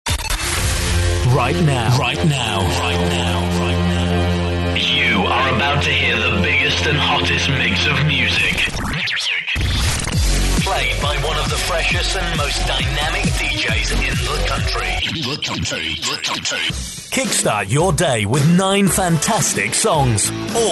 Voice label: radio imaging